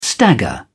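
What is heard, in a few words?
"stagger", British English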